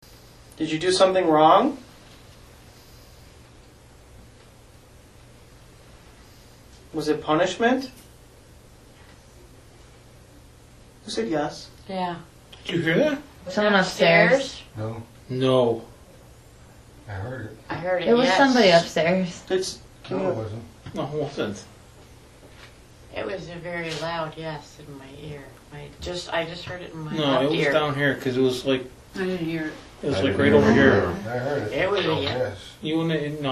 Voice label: female voice answering yes; gettysburg orphanage